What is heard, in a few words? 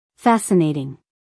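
"fascinating", American English female; everyone-can-use-english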